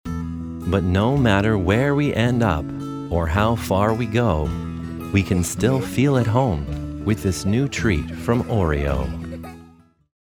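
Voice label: smooth/sophisticated, commercial; Oreo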